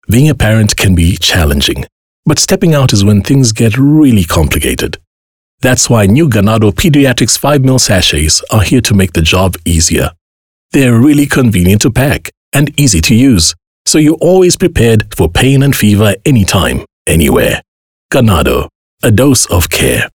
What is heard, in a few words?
English Conversational; OSM Talent